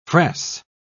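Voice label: "fress", British English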